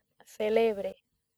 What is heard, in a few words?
"celebre", accent; laboratori fonetica; practiques accent